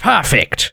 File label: positive vocalization